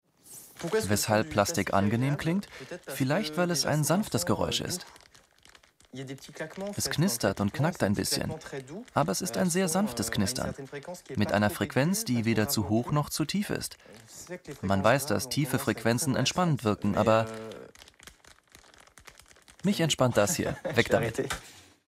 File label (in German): Doku de Voiceover Nerd skuril; ARTE; Tracks